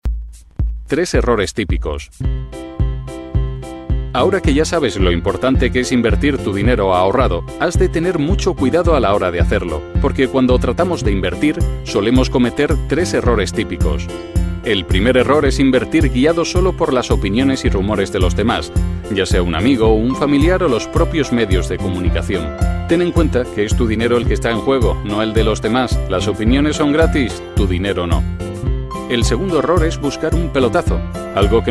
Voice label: corporativo

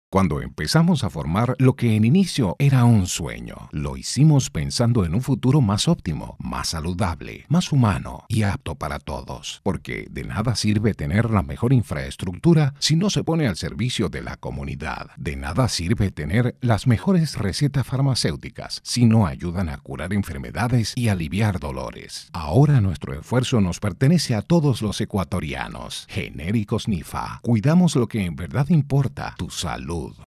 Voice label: Genericos

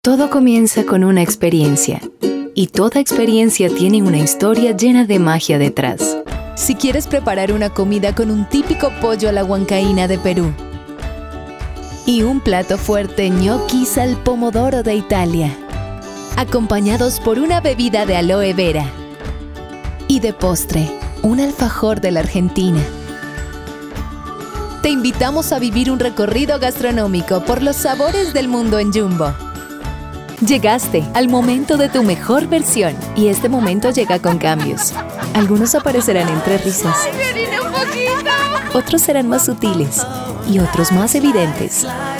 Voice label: Reel comercial

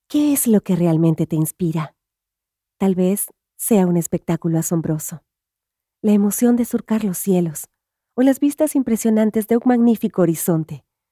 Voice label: Inspiracional

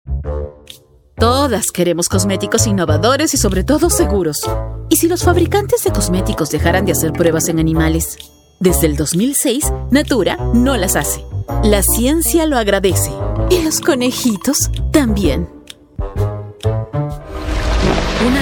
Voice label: corporativo

